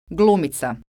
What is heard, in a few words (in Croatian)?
"glumica", Croatian